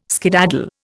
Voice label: "skedaddle", Indian